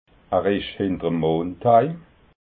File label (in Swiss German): "mond", alsace